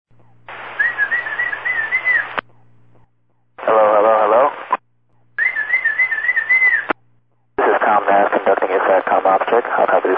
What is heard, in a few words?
Brazilian Pirate, HF Radio and Satcom; answered by satcom pirate